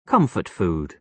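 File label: "comfort food", British English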